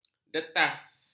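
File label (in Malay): "detas", Malay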